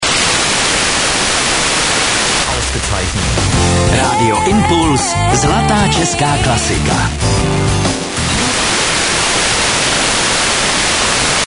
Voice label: czech id